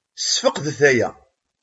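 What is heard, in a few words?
"Sefqeḍ aya.", Kabyle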